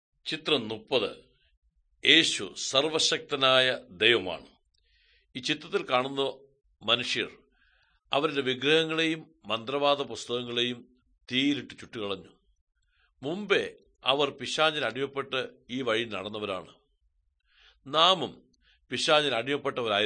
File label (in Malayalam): good news malayalam, drama; bible; world bible translation center nt drama